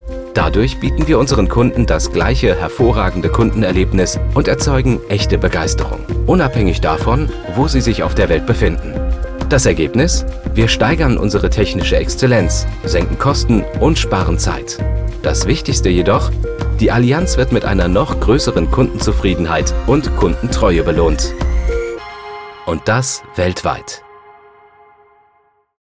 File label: german corporate